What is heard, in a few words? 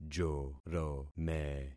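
"jorome", concatenated syllables; Affixing experiment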